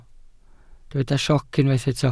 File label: "sioc", Welsh